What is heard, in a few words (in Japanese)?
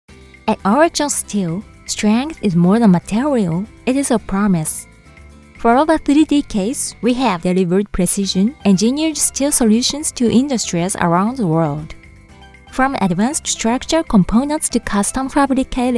英語